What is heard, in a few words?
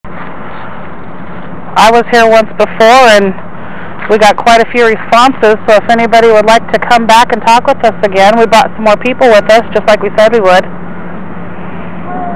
child saying hello